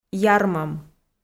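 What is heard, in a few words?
"ярмӧм", Komi-Zyrian, female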